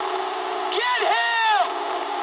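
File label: English attack position mood low; War Thunder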